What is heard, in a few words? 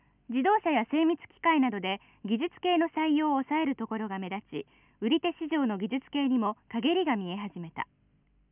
female